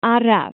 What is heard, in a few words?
"SLOW", welsh